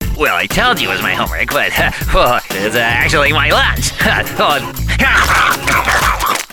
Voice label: Animation Awkward Nerd

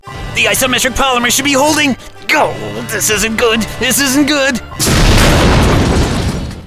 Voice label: Animation Anxious Scientist

